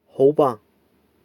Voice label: "baa6", Cantonese

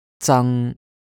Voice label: "zang1" Cantonese

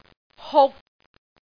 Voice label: "huk6", cantonese

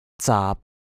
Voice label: "zaap6", cantonese

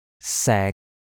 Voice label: "sek6", cantonese

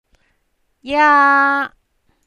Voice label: "jaa5", cantonese

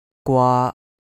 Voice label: "gwaa1", Cantonese

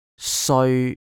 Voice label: "seoi1", cantonese

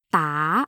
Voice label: "daa2", cantonese

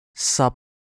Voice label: "sap1", cantonese

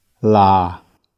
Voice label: "laa4", Cantonese

